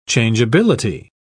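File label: "changeability", British English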